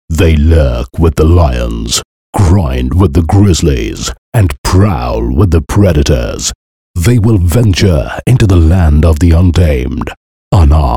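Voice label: English, male voice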